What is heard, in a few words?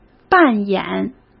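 "扮演", Mandarin Chinese; hsk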